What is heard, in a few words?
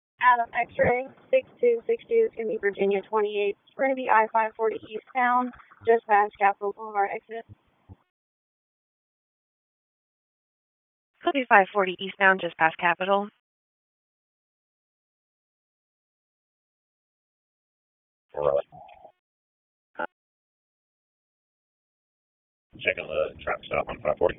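Raleigh Police Northeast District Dispatch, p25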